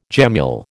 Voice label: "gemmule", American